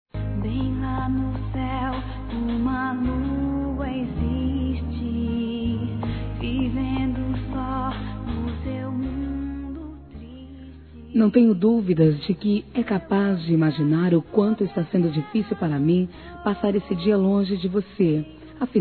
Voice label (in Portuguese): FILHA DISTANTE F, Telemensagens, Aniversários, Fem; Aniv Familiar